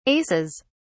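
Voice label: "aces", British English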